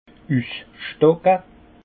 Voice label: "usstocke", alsace; vin; arracher la vigne

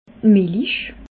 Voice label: "milich", alsace

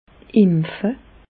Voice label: "empfe", Bas Rhin; medecine; vacciner

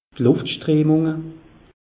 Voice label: "luftstrehmunge", alsace; meteo

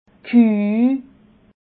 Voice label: "kueh", alsace

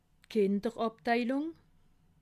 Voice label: "kinderabteilung", alsace

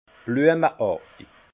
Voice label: "bluemeartig", Alsace; floral; vin

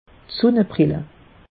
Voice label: "sunne brille", alsace; meteo

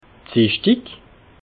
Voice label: "zischdig", alsace

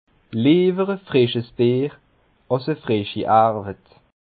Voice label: "frischs", Bas Rhin; plutôt une bière fraîche qu'un nouveau travail

